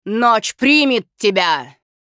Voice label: Critical Hit, ru RU; Diablo III; озвучка некроманта